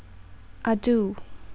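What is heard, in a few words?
"adieu", Thai, female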